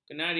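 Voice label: "kenari", Malay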